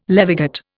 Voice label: "levigate", Indian

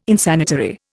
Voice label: "Insanitary", Indian

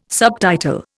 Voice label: "subtitle", Indian

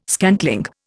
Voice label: "scantling", Indian

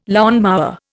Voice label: "lawnmower", Indian

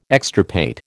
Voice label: "extirpate", American

